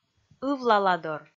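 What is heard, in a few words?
"ывлаладор", Komi, female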